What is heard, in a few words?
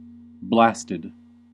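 "blasted", English, American accent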